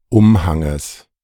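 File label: "Umhanges", German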